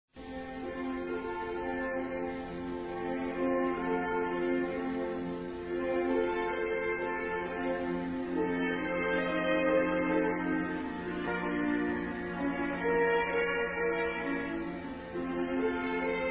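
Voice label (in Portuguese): religiosa, masculino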